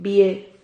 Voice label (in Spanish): "bihe", Silabas